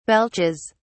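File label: "belches", British English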